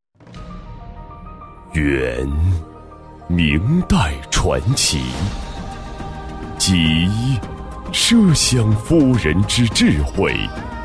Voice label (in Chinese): 男 酒 录音, 国语男声配音 厚重大气